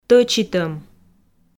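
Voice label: "тӧчитӧм", Komi, female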